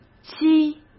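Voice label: "西", Mandarin Chinese; hsk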